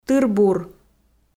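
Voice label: "тыр-бур", Komi, female; audio dict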